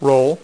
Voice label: "ROLL", dealer's speech

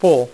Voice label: "full", dealer's speech; PowerPOKER Demo

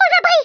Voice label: "take cover", French

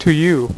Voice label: "to you", Dealer's Speech; PowerPOKER Demo

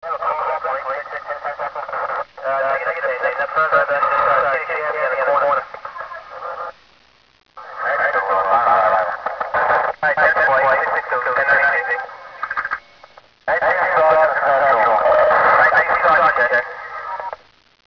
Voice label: Police Radio; WARLOCKSNDS

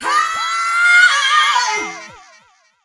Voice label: VOICEFX TEKNO 140, Techno, Voice

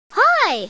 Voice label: toy hi; KidPak

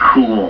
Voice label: "Cool!"; GrabAudio

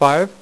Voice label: "6", poker; iPoker 2000

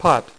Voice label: "POT", Dealer's Speech; PowerPOKER Demo

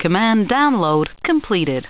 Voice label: command droid download completed; Warzone

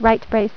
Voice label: Right Brace, female voice; TalkingKeys

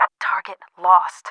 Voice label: lost, English

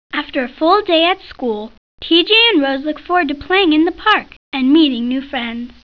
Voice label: Narrator; T.J. Finds a Friend

